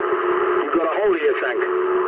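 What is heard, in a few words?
English tank damaged mood low; War Thunder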